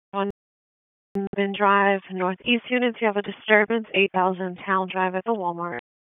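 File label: Police Northeast District Disp, radio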